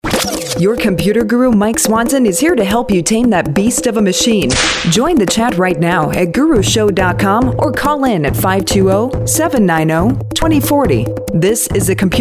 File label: COMMERCIALS